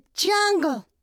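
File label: "jungle", English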